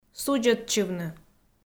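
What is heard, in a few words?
"судзӧдчывны", Komi, female; kpv; audio dict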